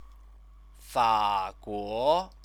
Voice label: "faguo", Chinese; Chinese lessons